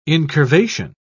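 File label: "incurvation", British English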